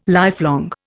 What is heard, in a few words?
"lifelong", Indian